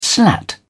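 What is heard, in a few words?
"slat", British English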